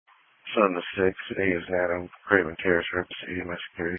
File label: Police Patrol 1 (Dispatch)